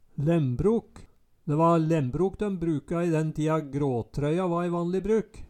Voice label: lembrok, Numedalsmål

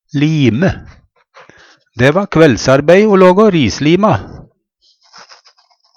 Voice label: lime, Numedalsmål; Rislima vart laga av vårriset på bjørka, før lauvsprett.

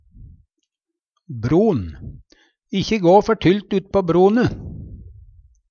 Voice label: "bron", Numedalsmål; Substantiv hokjønn

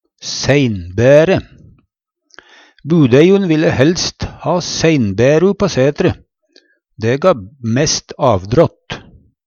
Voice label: seinbaere, Numedalsmål

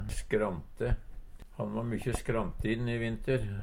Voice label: "skrante", Numedalsmål; Adjektiv

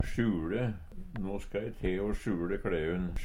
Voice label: "sjuLe", Numedalsmål; Verb; No ska e te o sjuLe kLeun.

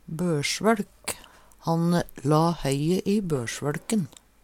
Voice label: "bøLsvøLk", Numedalsmål

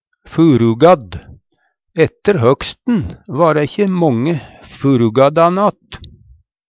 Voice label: furugadd, Numedalsmål; Ette høgsten va ræ kje månge furugaddan att.

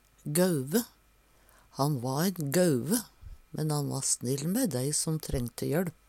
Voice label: gauve, Numedalsmål; storkar

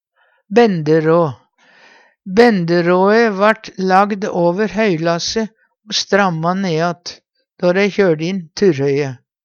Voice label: "benderå", Numedalsmål; Benderåe vart lagt på plass oppå høye når lasse va ferdi tråkka.

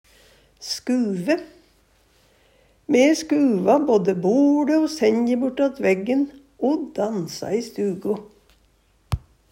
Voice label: "skuve", Numedalsmål

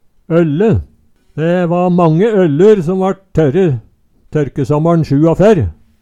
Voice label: "ølle", Numedalsmål

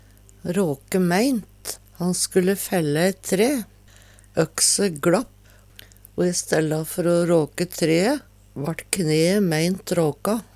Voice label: rake meint, Numedalsmål; Han skulle felle eit tre mæ økse si. Økse gLapp o i stella før o råke tree

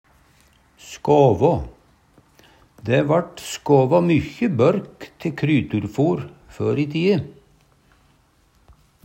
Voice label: "skåvå", Numedalsmål; Det vart skåvå tå mykje børk te kryturfor før i ti'e.

